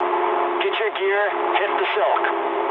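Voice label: English bailout mood low; War Thunder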